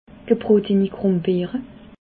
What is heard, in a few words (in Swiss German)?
"gebrotenigrumbeere", Alsace